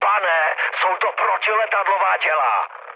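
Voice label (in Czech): Czech damaged anti-aircraft, mood medium; speech; War Thunder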